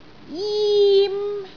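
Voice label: "jim1", Cantonese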